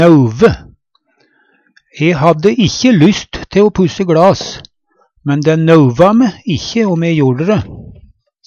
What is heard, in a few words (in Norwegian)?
"nauve", Numedalsmål